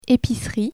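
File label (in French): "epicerie", French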